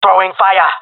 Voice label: throwing fire, jungle male; player vo; Counter Strike Model Pack; CS:GO